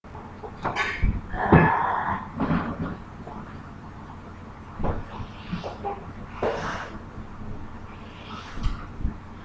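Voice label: "ring", spoken word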